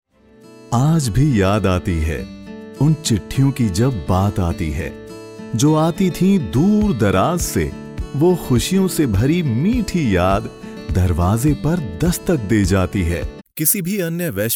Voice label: Voice Showreel Hindi

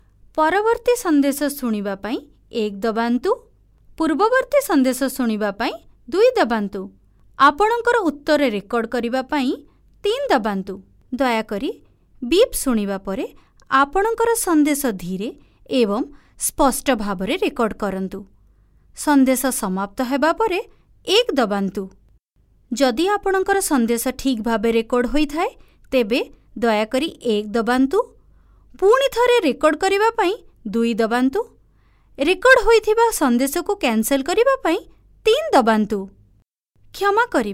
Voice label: IVR, oriya

